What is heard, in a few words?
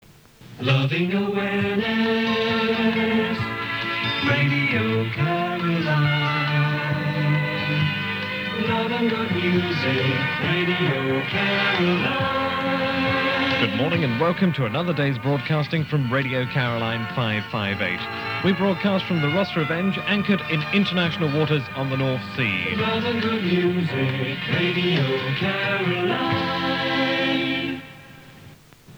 daily opening announcement